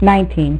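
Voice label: "nineteen", female; MacCribbage; InterStellar MacCribbage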